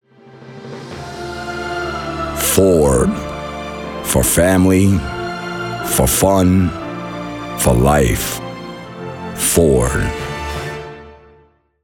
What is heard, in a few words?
Calm Strong